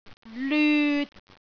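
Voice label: "lyut3", Cantonese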